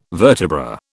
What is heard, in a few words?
"vertebra", British